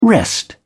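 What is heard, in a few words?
"wrest", English, British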